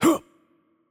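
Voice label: French attack light voice; bloom minigame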